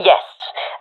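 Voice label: affirmation, professional female; Counter Strike Model Pack; CS:GO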